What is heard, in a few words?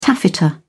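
"taffeta", British English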